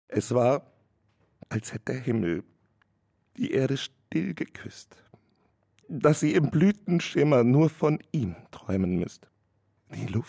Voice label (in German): traurig